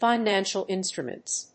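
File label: "financial instruments", English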